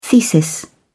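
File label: "thesis", British English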